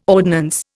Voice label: "ordnance", Indian

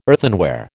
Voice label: "earthenware", American

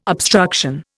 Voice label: "obstruction", Indian

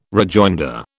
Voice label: "rejoinder", British

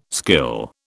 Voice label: "skill", British

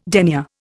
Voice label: "denier", Indian

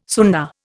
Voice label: "Sunna", Indian

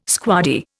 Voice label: "squaddie", Indian

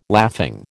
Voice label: "laughing", American

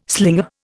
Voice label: "slinger", Indian

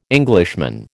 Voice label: "Englishman", American

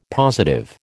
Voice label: "positive", American

